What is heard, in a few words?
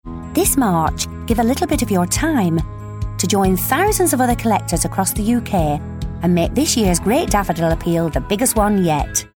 COMMERCIAL; Great-Daffodil-Appeal